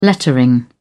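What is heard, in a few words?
"lettering", British English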